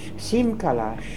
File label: "Simkalash", pronounciations; Fourth Way; Beelzebub